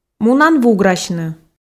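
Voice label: "мунан вуграсьны", Komi, female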